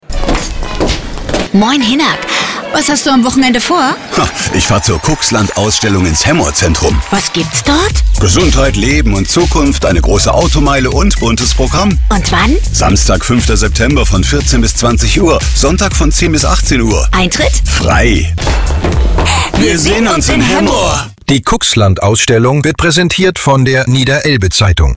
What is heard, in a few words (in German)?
Radiospot; ffn; cuxland-ausstellung-2026